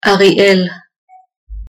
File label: Ariel Hebrew